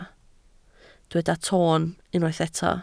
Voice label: "toon", Welsh